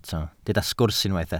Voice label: "sgwrs" Welsh

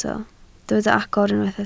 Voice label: "agor", Welsh

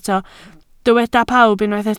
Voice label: "pawb", Welsh

